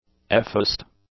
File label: "effaced", English; adjectives